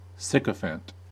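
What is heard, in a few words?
"sycophant", American English; pronunciation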